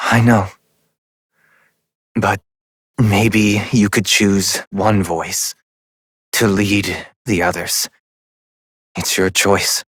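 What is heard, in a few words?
Voice, English; New War; Epilogue; Operator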